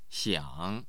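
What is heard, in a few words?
"xiang3", Mandarin Chinese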